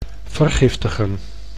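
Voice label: "vergiftigen", Dutch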